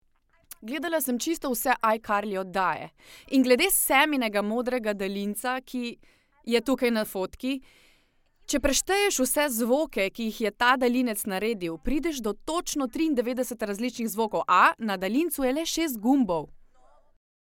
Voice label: female, Slovenian character; devine voices

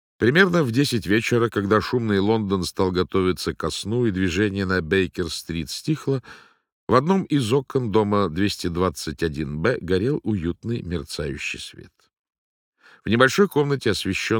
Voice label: male, Russian, narration

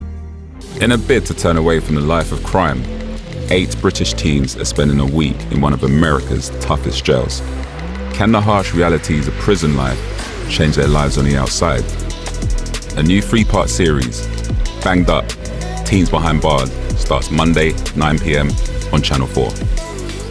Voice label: male english documentary tv promo; channel 4; Devine Voices

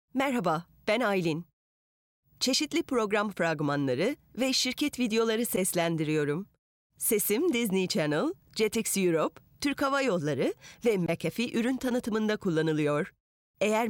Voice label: female, Turkish compilation

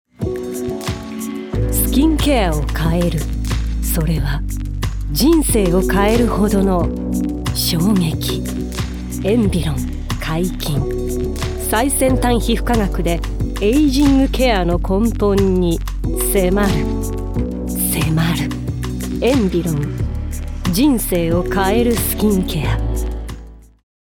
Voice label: female Japanese commercial

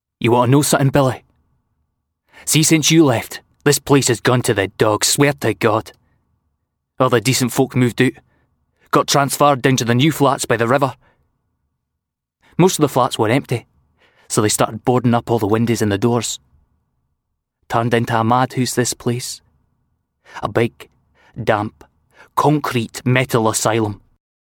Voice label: male english scottish drama extract

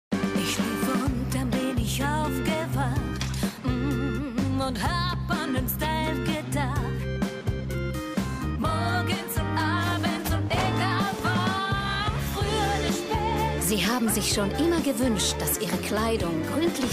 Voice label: female finnish german tv commercial; coral unilever

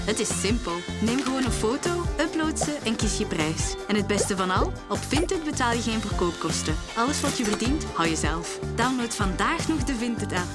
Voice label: female Dutch Flemish commercial; vinted

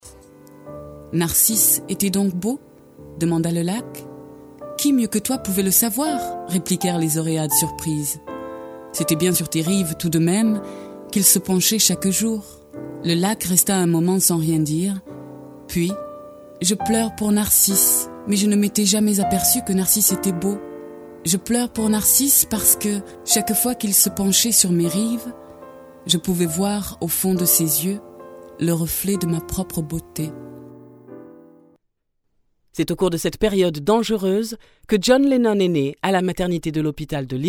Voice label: female French narration compilation; Devine Voices

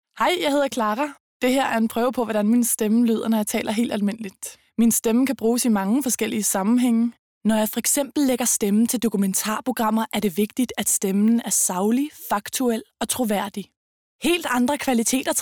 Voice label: female danish narration; devine voices

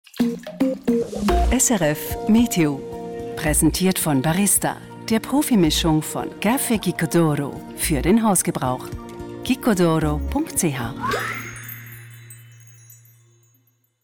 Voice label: female swiss german german commercial; srf

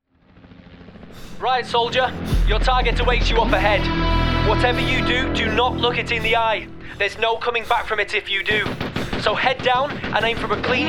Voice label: male english multiple accents gaming compilation; Devine Voices

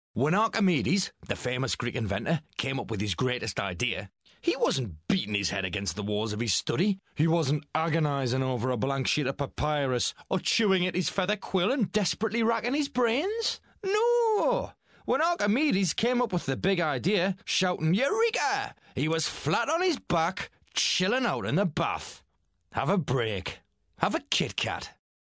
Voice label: male English Geordie accent commercial; english northern accents; kitkat

